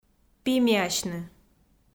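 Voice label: "пимиасьны", Komi, female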